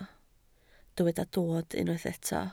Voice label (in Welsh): "dod", Welsh